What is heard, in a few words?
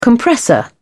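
"compressor", English, British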